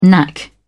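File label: "knack", British English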